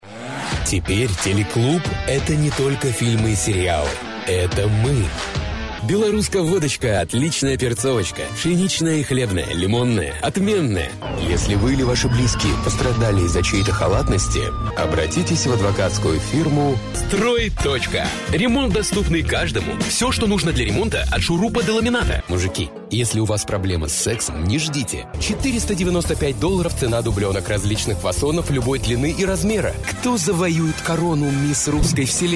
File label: russian commercial, heartfelt